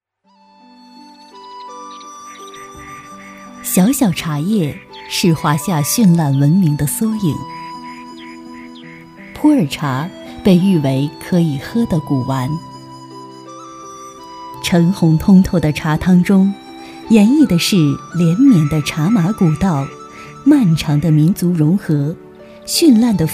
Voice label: 女 专题 小小茶叶

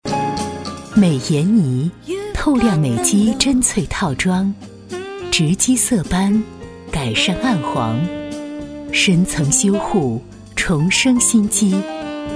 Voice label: 女 优雅广告; 国妆

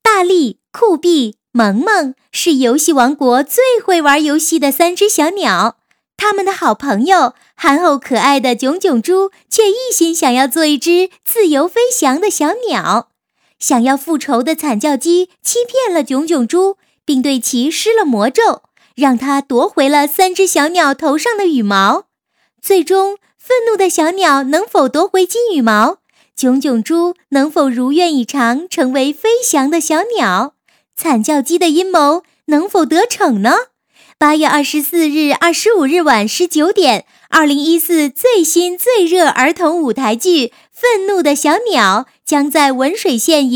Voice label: 女 角色模仿; 愤怒的小鸟

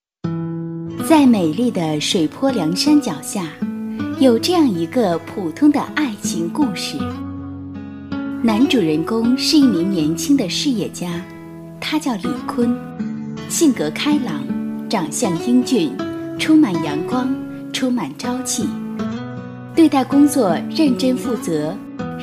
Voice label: 女 年轻甜美 微电影 抒情 感性, 国语女声配音; 爱情故事

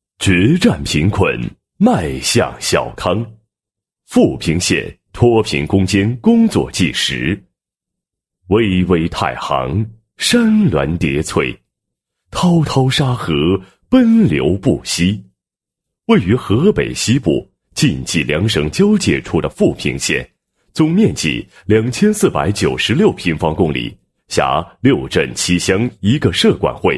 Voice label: 男 工作纪实, 决战贫困 脱贫攻坚工作纪实

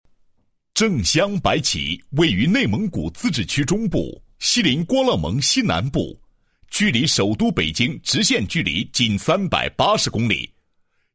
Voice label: 男 工作纪实 双拥工作纪实; 正镶白旗双拥工作纪实

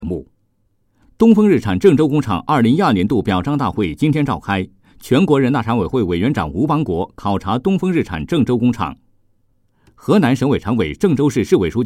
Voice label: 新闻联播男声样音

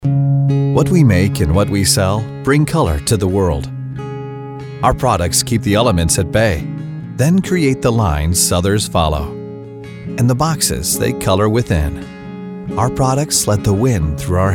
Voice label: 外籍男 商业广告 Commercial; Hewlett Packard

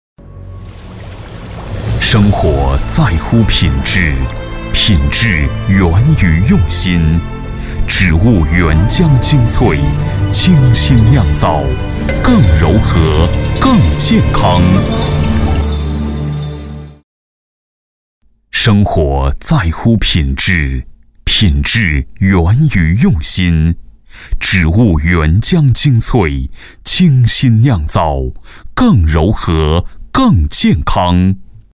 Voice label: 男 广告 酒; 乐合酒

